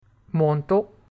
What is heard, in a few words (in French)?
"manteau", French